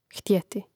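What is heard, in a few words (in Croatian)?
"htjeti", Hrvatski; neizvorni; mreznik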